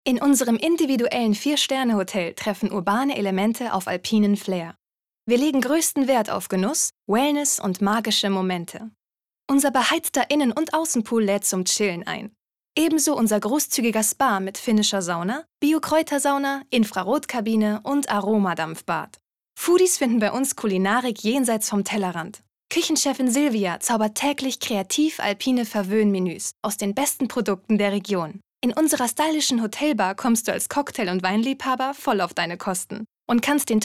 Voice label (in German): Ansage; ZillertalerHof